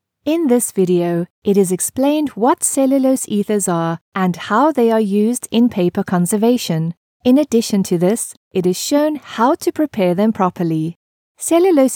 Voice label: english international explainer videos; Synchronsprecher aus Südafrika

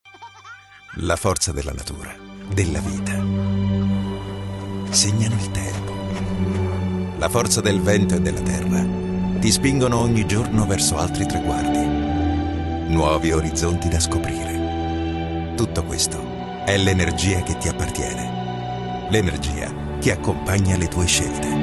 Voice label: italian commercial

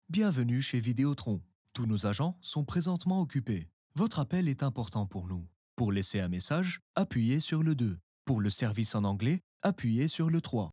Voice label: french ivr

